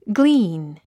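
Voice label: "glean", English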